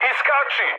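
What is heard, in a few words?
Serbian bailout mood med, speech; War Thunder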